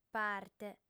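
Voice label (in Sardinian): "parte", female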